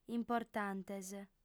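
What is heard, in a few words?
"importantes", Sardinian, female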